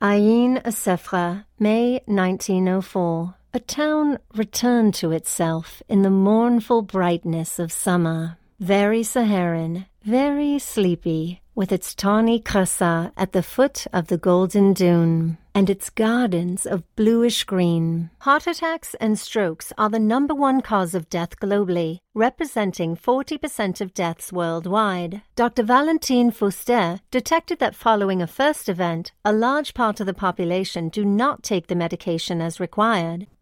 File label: English British narration